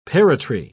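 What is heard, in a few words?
"parrotry", British English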